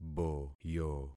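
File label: "boyo", concatenated syllables; Affixing experiment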